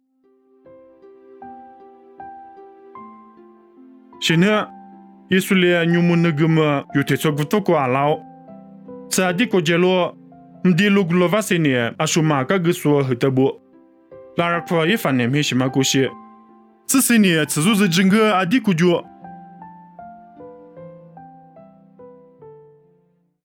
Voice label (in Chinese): 凉山彝语; 以色列以法莲; nuosu